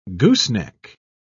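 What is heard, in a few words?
"gooseneck", British English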